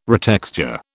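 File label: "retexture", British English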